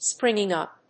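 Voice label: "springing up", English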